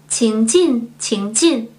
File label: Mandarin Conversation